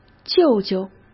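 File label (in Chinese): "舅舅", Mandarin Chinese; chinese-flashcards; hsk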